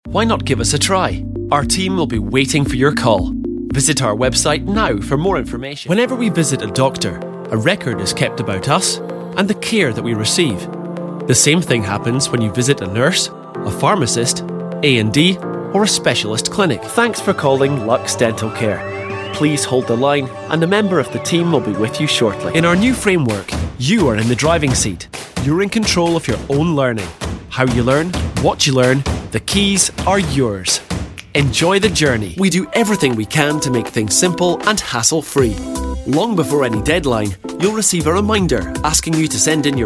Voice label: scottish corporate, Fresh Young Scottish Voice Over